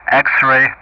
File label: "X-ray", Speech ENG; Ka-50; Alphabet